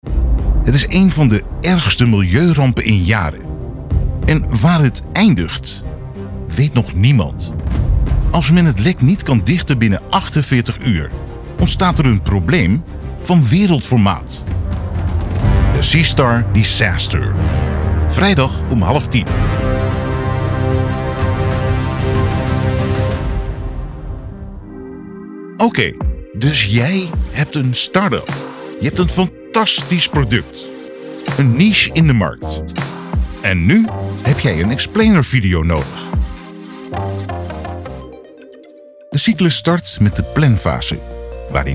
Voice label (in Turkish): Hollanda hollandaca Erkek; seslendirme